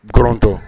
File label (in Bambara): "gɔrɔntɔ", Bambara